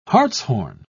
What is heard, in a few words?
"hartshorn", pronounce